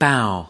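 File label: "bough", US English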